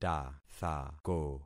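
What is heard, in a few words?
"tathako", concatenated syllables; Affixing experiment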